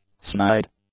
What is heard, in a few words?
"snide", english; adjectives